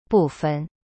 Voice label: "部分 bu fen", Chinese; cyberchinese